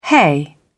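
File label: "hay", British English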